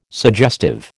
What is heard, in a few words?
"suggestive", American